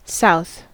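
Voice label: "south", US English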